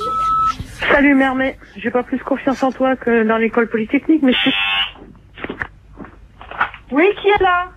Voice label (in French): Qui est la repondeur